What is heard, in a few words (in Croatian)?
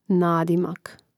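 "nadimak", Hrvatski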